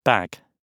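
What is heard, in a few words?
"bag", British English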